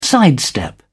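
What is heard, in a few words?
"sidestep", British English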